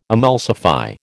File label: "emulsify", American